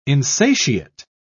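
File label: "insatiate", British English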